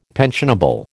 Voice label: "pensionable", American